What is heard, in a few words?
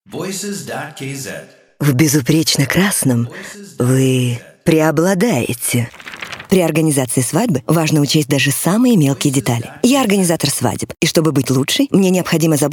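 Russian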